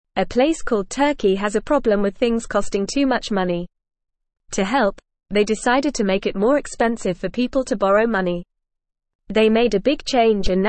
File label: English Newsroom Beginner FAST Reading; Turkey Raises Borrowing Costs to Control Rising Prices